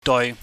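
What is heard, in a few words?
"doi", Cantonese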